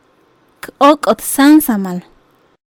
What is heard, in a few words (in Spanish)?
Bailamos diariamente, Maya; K óok'ot sáansamal